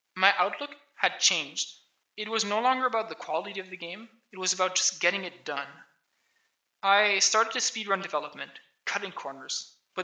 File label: voice, narration